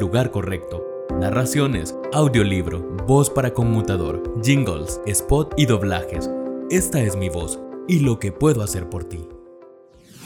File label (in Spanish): voz marketing